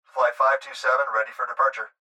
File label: Pilot Voice Departure